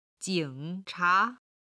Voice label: "jing3cha2", chinese